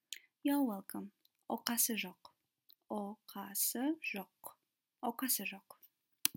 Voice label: Youre welcome, kazakh